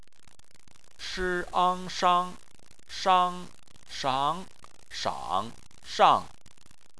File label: "shang", chinese